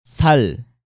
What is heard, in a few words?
"Sal", Korean